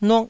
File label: "nuk1", taiwanese